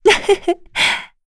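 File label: Happy, Korean; voices; heroes; Yuria; kings raid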